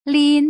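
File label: "lin", Putonghua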